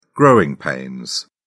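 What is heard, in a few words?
"growing pains", British English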